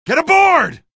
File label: get aboard, male; video games; npc; source engine; episodic; episode 1